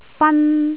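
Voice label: "fan1", Cantonese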